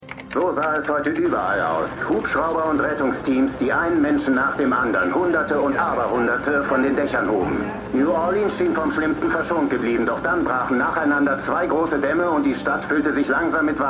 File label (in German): TV Moderator; MEMORIAL HOSPITAL - DIE TAGE NACH HURRIKAN KATRINA (DF 2022); Five Days at Memorial